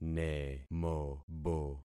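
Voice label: "nemobo", concatenated syllables; Affixing experiment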